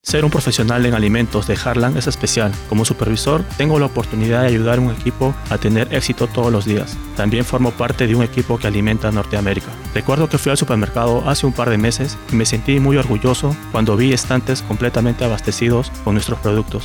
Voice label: Spanish and English Ad; Sprint